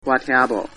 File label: no understand, Taiwanese